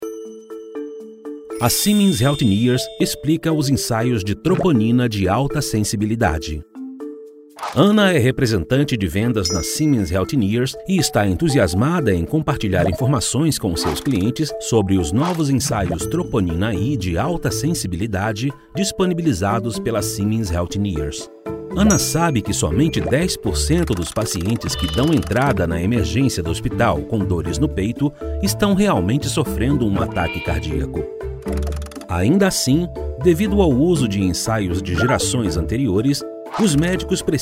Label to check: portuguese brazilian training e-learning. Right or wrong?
Right